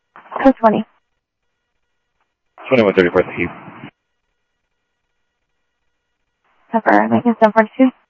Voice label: scanner audio trunk